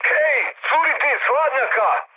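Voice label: Serbian damaged water mood high; speech; War Thunder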